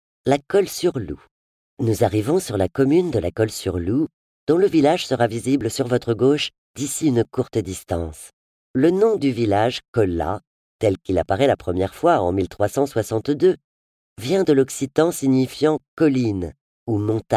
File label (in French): Narration; Excursion Antibes